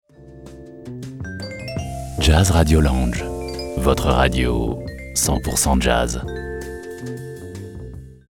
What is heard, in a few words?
JAZZ RADIO